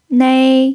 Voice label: "nei1", Mandarin pinyin

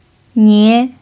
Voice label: "nie2", Mandarin pinyin

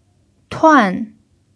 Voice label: "tuan4", Mandarin pinyin

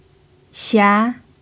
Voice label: "xia2", Mandarin pinyin

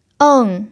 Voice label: "eng4", Mandarin pinyin

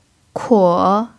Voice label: "kuo3", mandarin pinyin

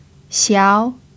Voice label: "xiao2", Mandarin pinyin

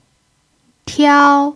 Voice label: "tiao1", Mandarin pinyin

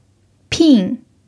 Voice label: "pin4", Mandarin pinyin

